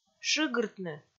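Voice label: "шыгыртны", Komi, female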